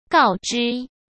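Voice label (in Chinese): "告知", Mandarin Chinese; hsk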